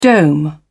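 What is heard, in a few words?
"dome", British English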